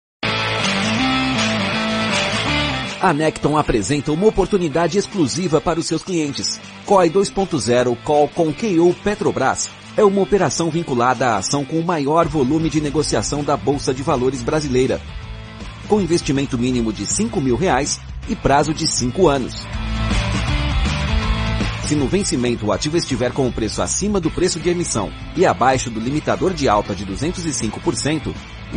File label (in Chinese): 葡萄牙语男 外语 激情; 小语种